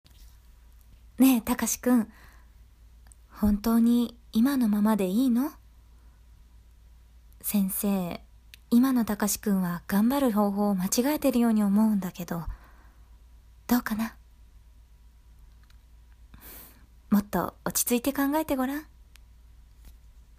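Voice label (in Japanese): aoi kokoro vs drama, Japanese voice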